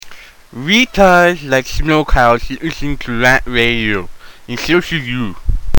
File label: Promo; Listen To Rantradio; RantMedia; Station Promos-Listener Submitted